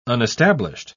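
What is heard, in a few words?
"unestablished", British English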